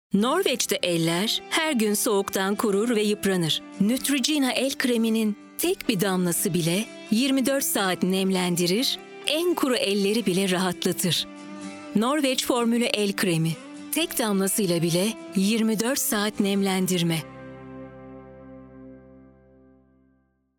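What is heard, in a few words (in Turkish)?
sicak sakin, seslendirme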